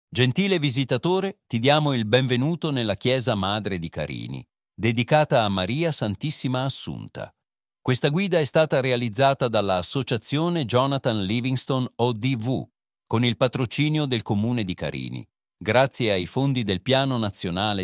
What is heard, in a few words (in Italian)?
audioguida, ita; chiesa madre